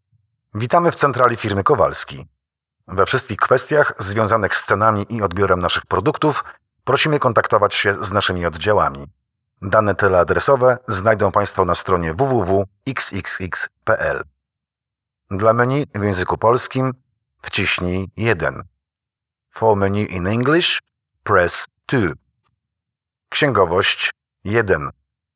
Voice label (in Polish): glos meski